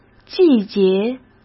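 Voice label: "季节", Mandarin Chinese; hsk; chinese-flashcards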